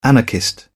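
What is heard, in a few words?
"anarchist", British English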